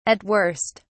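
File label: "at worst", British English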